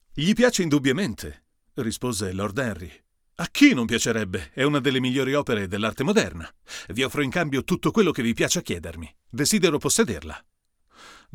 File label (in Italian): iRig per confronto U87ai